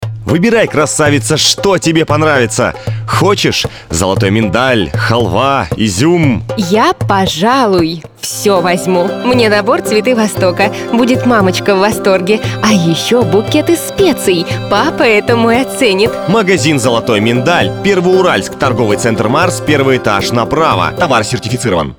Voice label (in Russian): Audiorolik magazin vostochnykh sladostey diktory; magazin vostochnykh sladostey Pervouralsk